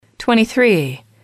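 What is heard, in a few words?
"twenty three", English, female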